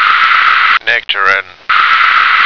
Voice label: "nectarine", American